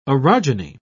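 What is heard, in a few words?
"orogeny", British English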